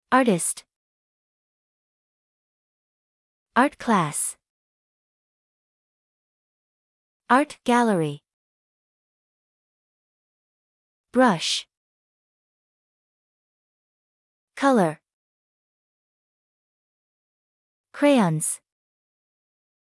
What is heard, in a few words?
usa